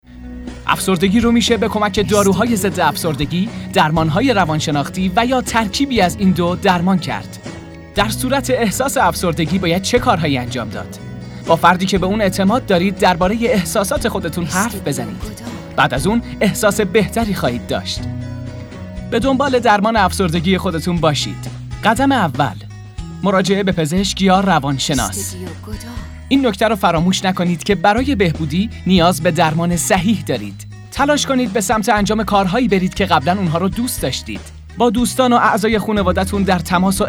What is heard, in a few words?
commercial